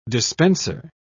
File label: "dispenser", pronounce